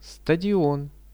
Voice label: "stadion", Russian; стадион